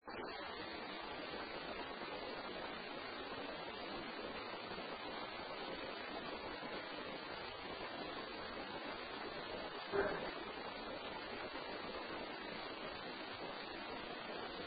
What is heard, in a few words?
Male voice Bring it; Case 020 Ballard Building